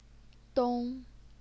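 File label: "dong", first tone, Chinese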